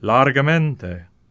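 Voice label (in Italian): "Largamente", Italian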